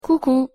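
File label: pronunciation "coucou", French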